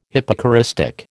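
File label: "hypocoristic", American English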